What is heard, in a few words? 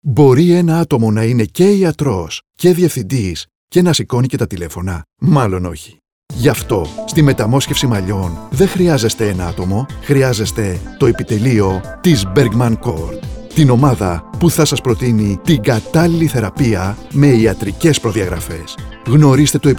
radio spots; trixoptwsi metamosxefsi malliwn therapies; epiteleio